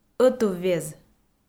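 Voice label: "ӧтуввез" Komi, female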